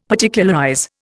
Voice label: "Particularize", Indian; Dictionary